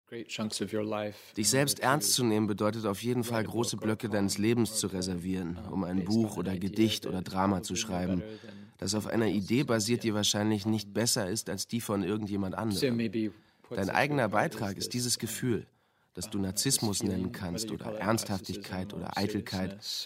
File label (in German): Feature Off Sprecher, German; 101 Wege nicht zu schreiben; LOFT VOICES